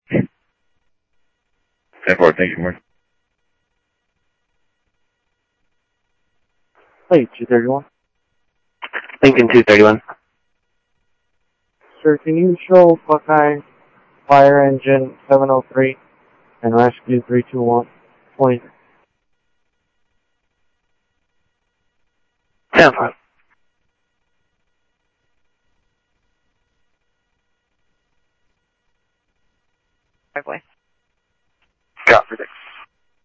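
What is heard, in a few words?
Sheriff West County, scanner audio trunk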